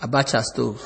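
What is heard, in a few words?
"abachastov", Nigerian Pidgin, male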